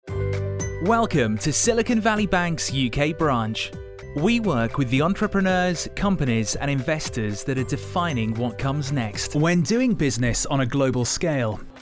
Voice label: Corporate